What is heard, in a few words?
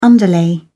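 "underlay", English, British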